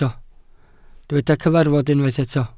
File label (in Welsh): "cyfarfod" Welsh